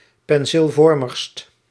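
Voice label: "penseelvormigst", Dutch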